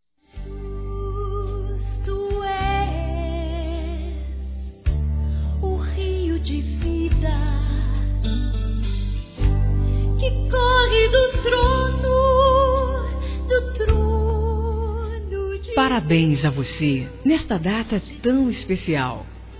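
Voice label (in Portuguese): ANIVERSARIO GERAL EVANGÉLICO, feminino; Telemensagens; Telemensagens 2017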